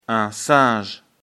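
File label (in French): "singe", French; afrikalan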